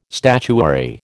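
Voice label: "statuary", American English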